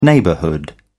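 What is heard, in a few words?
"neighbourhood", British English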